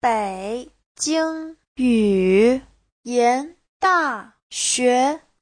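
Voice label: "Běijīng Yǔyán Dàxué", Mandarin Chinese; 北京语言大学 : (danh từ riêng) Đại học Ngôn ngữ Bắc Kinh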